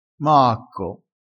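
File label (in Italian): "maacco", crespinese dialettale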